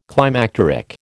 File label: "climacteric", American